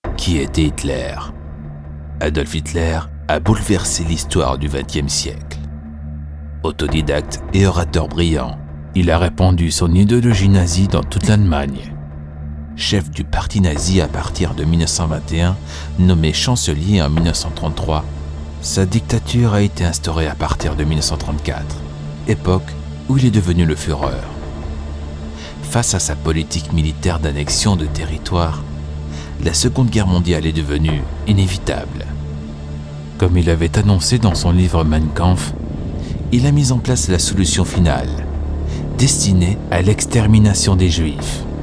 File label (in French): audiobook